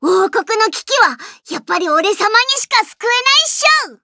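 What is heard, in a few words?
start, Japanese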